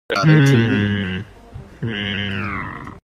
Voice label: hmmmmm; skulker